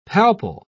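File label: "palpal", British English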